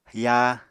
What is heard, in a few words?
"yaa", Apatani